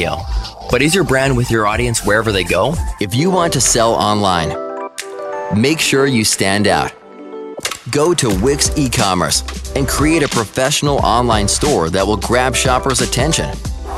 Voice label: Voice Over